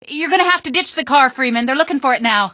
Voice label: ditch car, female; Garry's Mod; hl2